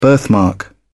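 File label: "birthmark", British English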